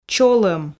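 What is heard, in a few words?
"чолӧм", Komi, female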